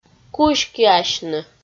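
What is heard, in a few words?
"кузькиасьны", Komi, female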